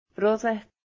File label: "brother", British Liverpool accent